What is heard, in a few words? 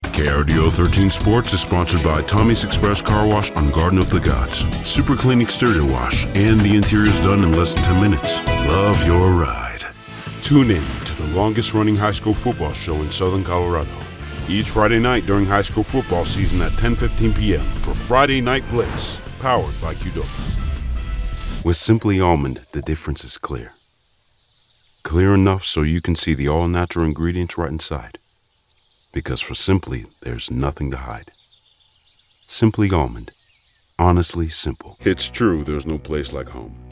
Commercial